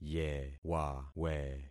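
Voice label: "yewawe", concatenated syllables; Affixing experiment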